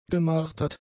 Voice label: "gemartert", German; adjectives